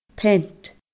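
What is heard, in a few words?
"peant", Scottish Gaelic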